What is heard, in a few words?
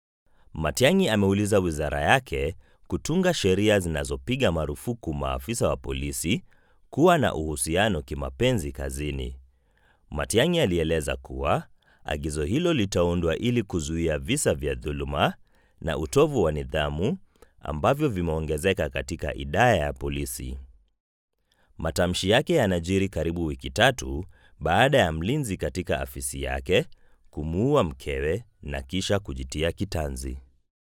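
Swahili, male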